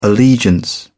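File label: "allegiance", English, British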